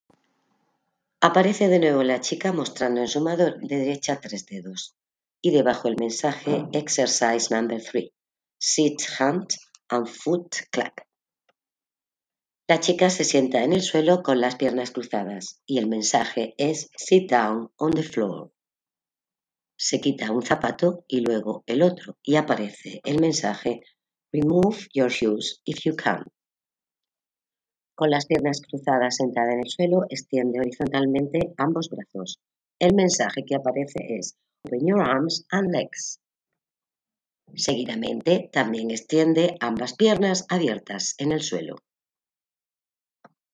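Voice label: count to five in french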